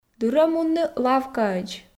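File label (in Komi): "дыр-ӧ мунны лавкаӧдз", Komi, female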